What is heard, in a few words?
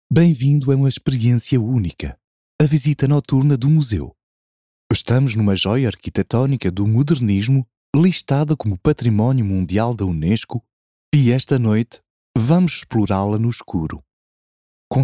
Portuguese Audioguide VO